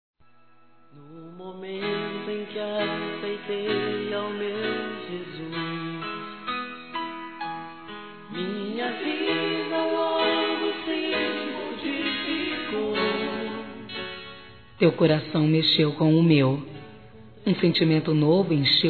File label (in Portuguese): Trilha PAQUERA, Gospel, fem; Telemensagens; Telemensagens 2017